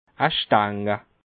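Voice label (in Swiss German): "stanga", Bas Rhin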